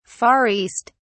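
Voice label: "far east", British English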